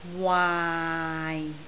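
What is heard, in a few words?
"waai6" Cantonese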